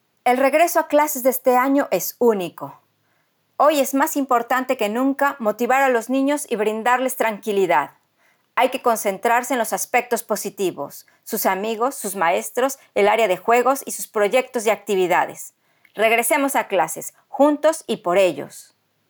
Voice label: Message Espagnol